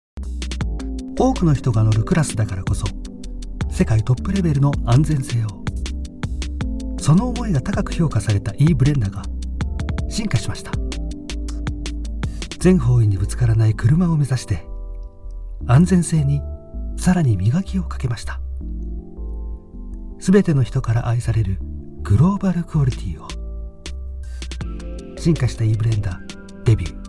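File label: Japanese, male, elearning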